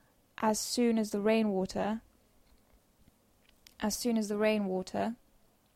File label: Dictation; phonology